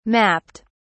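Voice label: "mapped", English, British English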